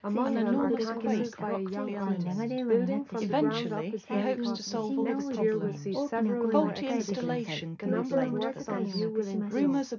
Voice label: mix female